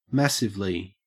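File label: "massively", Australian English; pronunciations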